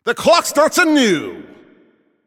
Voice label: Announcer Overtime Starts

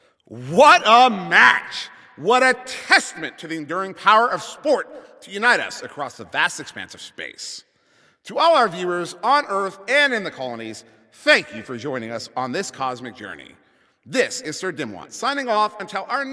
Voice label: Announcer Game Ends

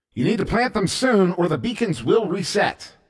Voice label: Plant It Soon, announcer

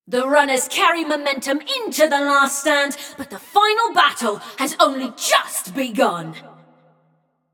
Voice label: Runners Lead, Announcer; Flux; Scraprun

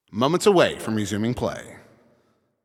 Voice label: Announcer Waiting For Players After Score

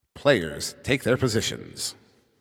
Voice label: 10 Seconds To Game Start, Announcer

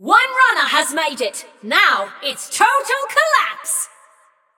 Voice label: Scrap Run Runner Reached End, Announcer; Flux

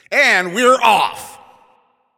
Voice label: Game Start, Announcer

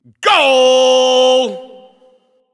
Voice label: Goal Scored, Announcer

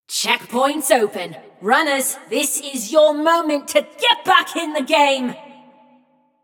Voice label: Checkpoint Opened, Announcer; Flux; Scraprun

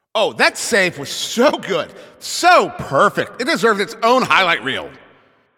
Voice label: Announcer Goalie Save

